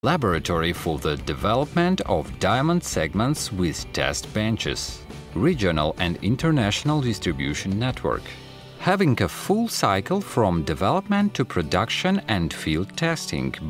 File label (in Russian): golos english